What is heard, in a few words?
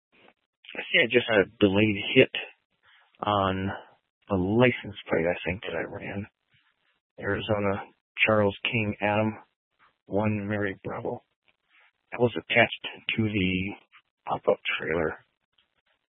Sheriffs Office, scanner; Maricopa County; D1 Southeast; Chandler-Mesa Simulcast